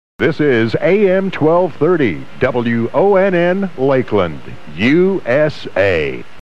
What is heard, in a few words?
station ID; WONN